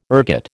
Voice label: "ergot", American